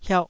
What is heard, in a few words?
"hiauh2", Taiwanese syllable